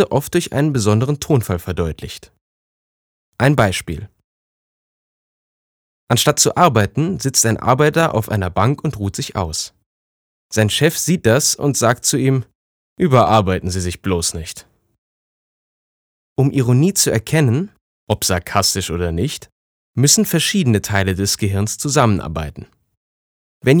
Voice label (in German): Sarkasmus Freundlich